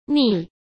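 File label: "逆", Mandarin Chinese; hsk; chinese flashcards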